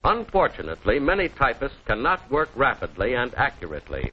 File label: unfortunately typists, Quirky Female Typing Instructor; VOCALS and SPOKEN WORD